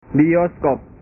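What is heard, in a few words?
"bioskop", Indonesian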